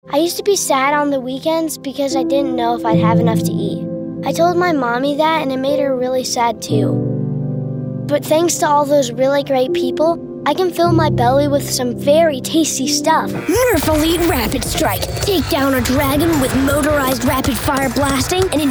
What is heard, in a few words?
Commercial